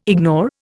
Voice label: "ignore", Indian